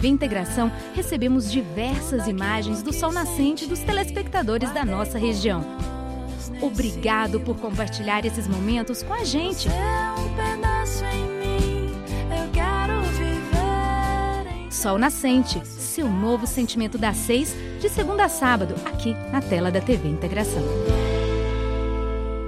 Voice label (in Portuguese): Chamada Novela